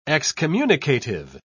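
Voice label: "excommunicative", British English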